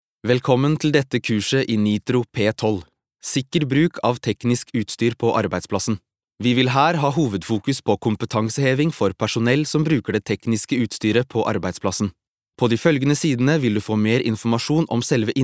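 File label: Norwegian elearning, mann; norsk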